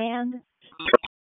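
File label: Police Special Operations Tactical; wake; p25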